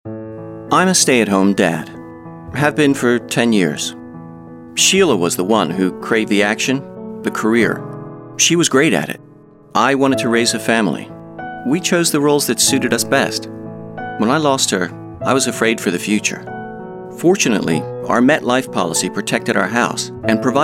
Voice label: Commercial